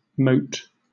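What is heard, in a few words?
"moat", British English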